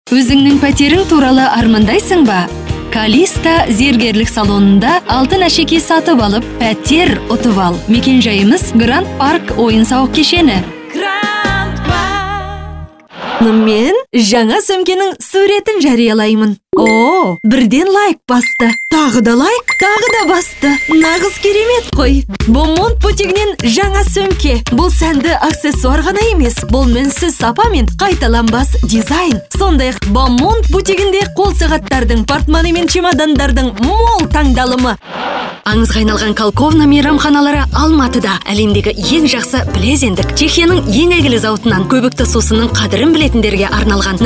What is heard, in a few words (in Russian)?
КАЗ, дикторы озвучка